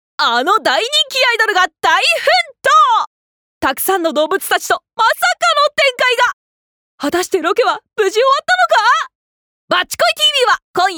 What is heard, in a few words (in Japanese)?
ナレーション